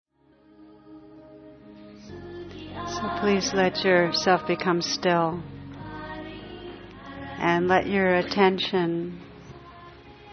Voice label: Meditation; Art of Practice RAIN; Tara Brach